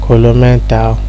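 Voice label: "kolomental" Nigerian Pidgin, male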